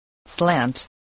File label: "slant", voice, spelling